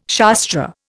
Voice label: "Shastra", Indian